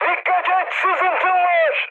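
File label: Turkish damaged water, mood high, speech; War Thunder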